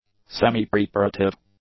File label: "semi-preparative", English; adjectives